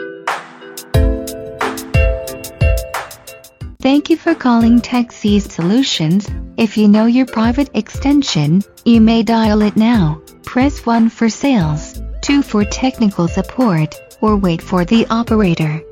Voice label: IVR; Techseized